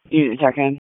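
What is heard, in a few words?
Police District Dispatch, p25; wake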